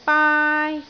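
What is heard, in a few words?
"baai1", Cantonese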